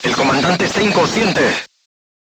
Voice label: voice message commander contused, crew tanks spanish